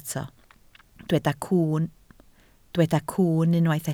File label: "cwwn", Welsh